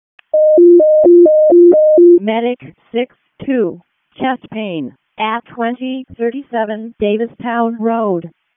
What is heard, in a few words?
WC EMS ALERT, p25; wake